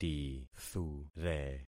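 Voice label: "tithure", concatenated syllables; Affixing experiment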